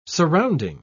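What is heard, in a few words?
"surrounding", British English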